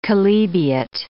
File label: "chalybeate", English